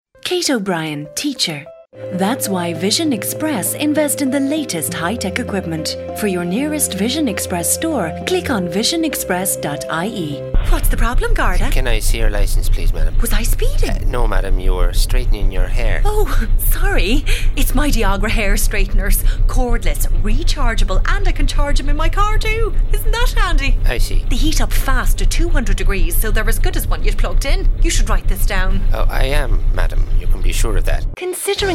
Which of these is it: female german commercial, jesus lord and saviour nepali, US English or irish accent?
irish accent